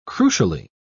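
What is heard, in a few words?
"crucially", British English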